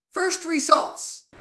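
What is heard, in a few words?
Voice Acting Spanish Female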